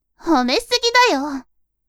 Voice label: woman